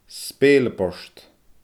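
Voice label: "spèileabord", Scottish Gaelic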